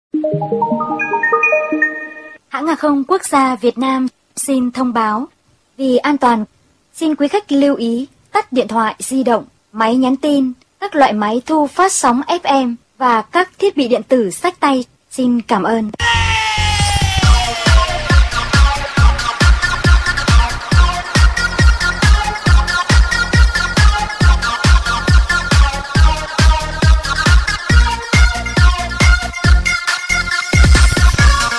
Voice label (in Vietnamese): hang khong quoc gia thong bao